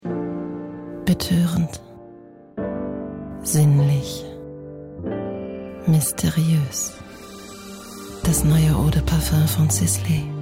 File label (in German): Werbung sinnlich